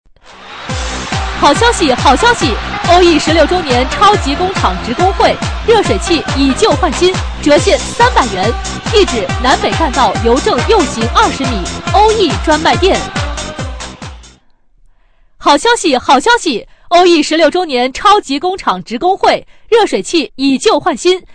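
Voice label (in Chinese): 女促销 建材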